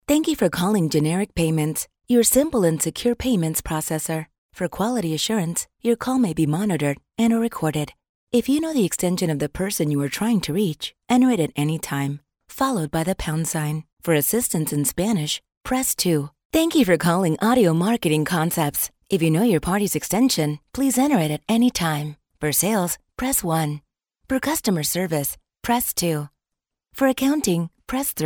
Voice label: Friendly English slight Accent IVR

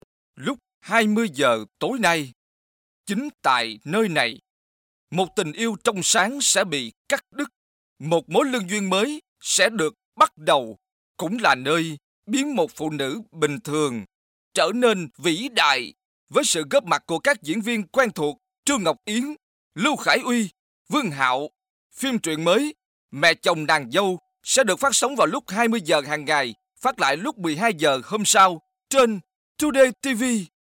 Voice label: Trailer

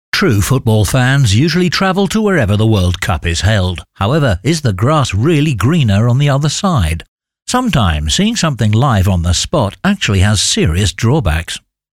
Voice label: studio quality

